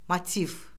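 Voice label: "motiv", Azerbaijani; Orfoqrafiya Lüğəti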